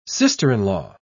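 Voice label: "sister-in-law", British English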